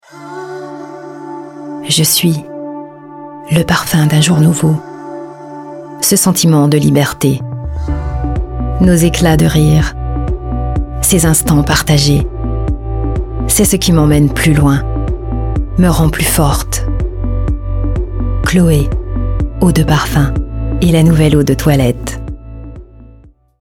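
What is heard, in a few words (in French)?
PUB, Voix off; Chloé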